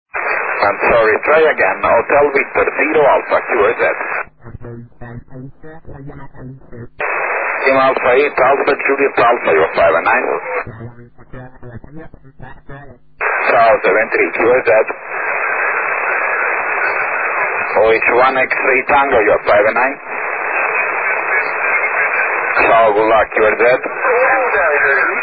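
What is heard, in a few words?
6m single sideband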